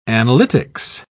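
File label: "analytics", British English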